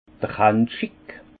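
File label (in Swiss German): "hanschi", Haut Rhin; vin; gant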